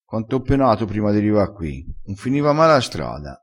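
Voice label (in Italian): "pena", dialettale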